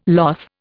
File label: "loth", Indian